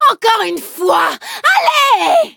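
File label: defeat, French; cookie run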